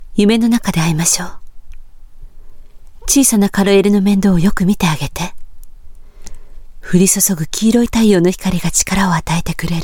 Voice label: Japanese 吹替え